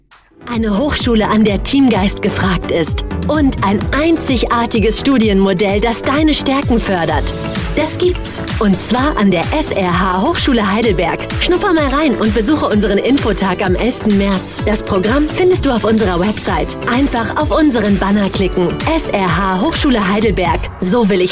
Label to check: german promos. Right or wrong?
Right